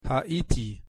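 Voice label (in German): "haiti", German; pronunciation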